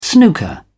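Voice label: "snooker", British English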